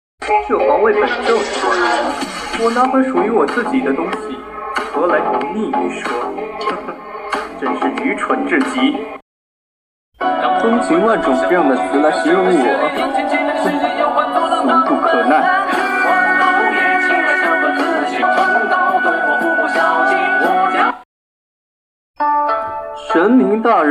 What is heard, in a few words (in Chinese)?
公子音